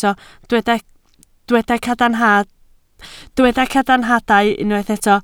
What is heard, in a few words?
"cadarnhadau", Welsh